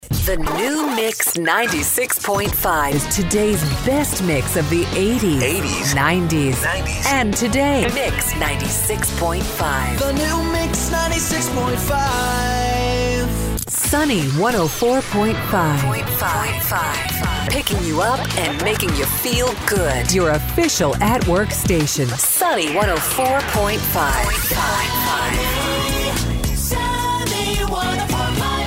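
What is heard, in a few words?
voice over ac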